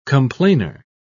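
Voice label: "complainer", British English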